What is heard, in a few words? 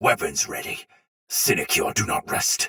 Patrol Soldier Sword Agent Male, Battle; Dialog; Barks; Melee; Sinecure